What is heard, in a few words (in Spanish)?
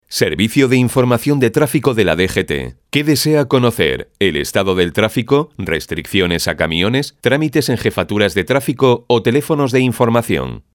Centralita